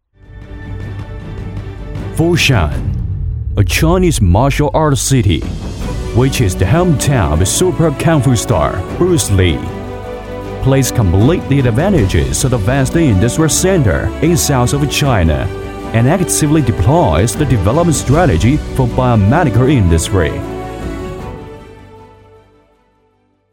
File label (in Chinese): 男英 外语 国内英语 大气; 广东佛山